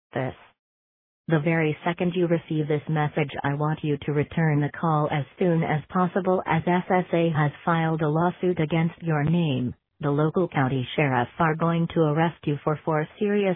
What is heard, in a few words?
voicemail